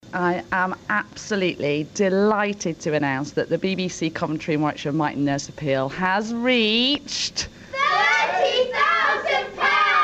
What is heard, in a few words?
myton announcement